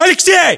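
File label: "aleksei", battlechatter, Russian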